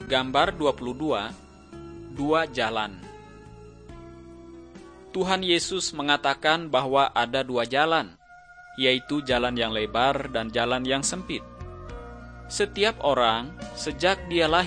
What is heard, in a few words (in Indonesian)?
kabar baik good news bahasa indonesia